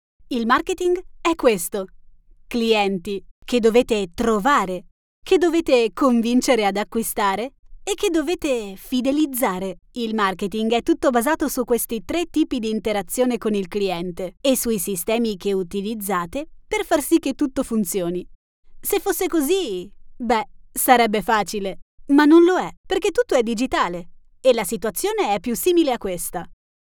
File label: Corporate